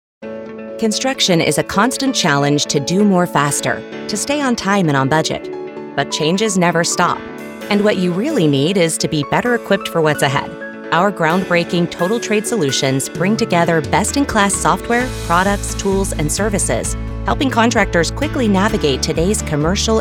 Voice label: corporate narration, female voice over